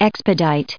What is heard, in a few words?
"expedite", us